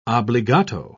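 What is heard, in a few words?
"obbligato", English, British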